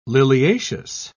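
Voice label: "liliaceous", British English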